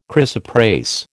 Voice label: "chrysoprase", American

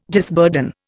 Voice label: "disburden", Indian

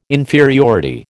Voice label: "inferiority", American

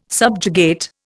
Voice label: "subjugate", Indian

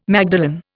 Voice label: "magdalen", Indian

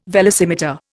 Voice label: "velocimeter", Indian

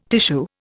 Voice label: "tissue", Indian

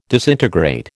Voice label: "disintegrate", American

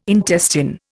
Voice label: "intestine", Indian

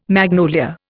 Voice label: "magnolia", Indian

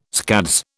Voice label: "scads", British

